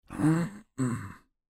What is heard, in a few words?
old man; Fruity Burst 2